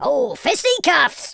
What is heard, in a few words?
dragon punch, Pirate